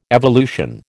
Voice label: "evolution", American

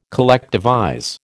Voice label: "collectivize", American

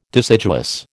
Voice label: "deciduous", American

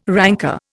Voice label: "ranker", Indian

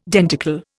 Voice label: "denticle", Indian

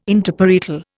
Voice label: "Interparietal", Indian

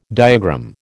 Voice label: "diagram", American

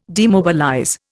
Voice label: "demobilize", Indian

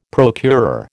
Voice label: "procurer", American

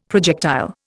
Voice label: "projectile", Indian; Dictionary

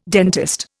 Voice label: "dentist", Indian

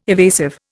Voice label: "evasive", Indian

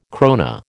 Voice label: "krona", American

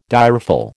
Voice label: "direful", American

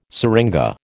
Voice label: "syringa", American

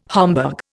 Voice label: "Homburg", Indian

